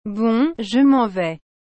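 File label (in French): "Bon Je m'en vais", French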